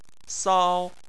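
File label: "sao" tone 1, Chinese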